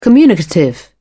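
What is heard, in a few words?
"communicative", British English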